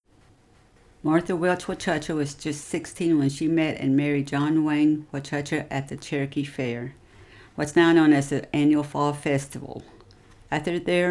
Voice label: english